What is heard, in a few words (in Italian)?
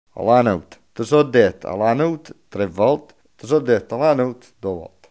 "nuda la", coratino; Archivio di parlato dialettale del progetto Galileo G14-126